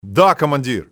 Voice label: yes sir, russian soldier; XBOX